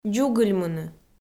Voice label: "дзугыльмыны", Komi

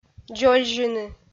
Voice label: "дзользьыны", Komi

